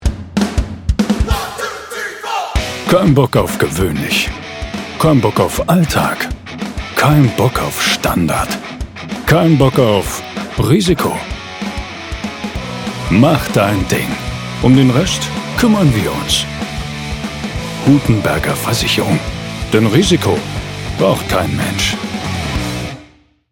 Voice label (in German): Hoerprobe Werbung; Hutenberger Versicherung